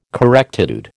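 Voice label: "correctitude", American English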